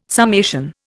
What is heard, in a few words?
"summation", Indian